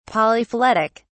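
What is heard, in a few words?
"polyphyletic", British English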